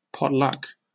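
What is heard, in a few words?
"potluck", British English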